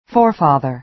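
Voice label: "forefather", American English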